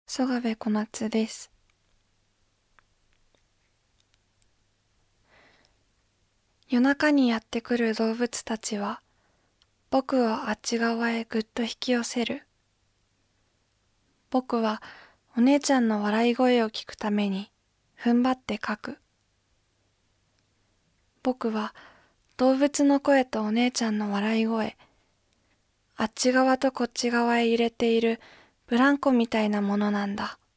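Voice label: Voice Sample Narration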